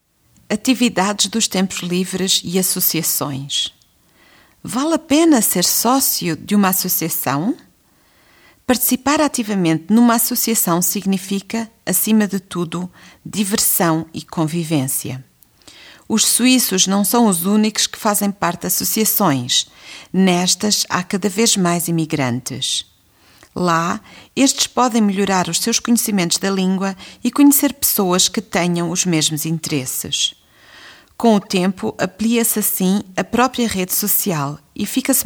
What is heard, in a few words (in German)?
portugiesisch; freizeitakt und vereine